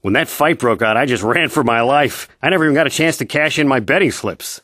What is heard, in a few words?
male; Star Wars Knights Of The Old Republic I; XBOX